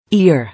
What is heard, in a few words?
"Ear", English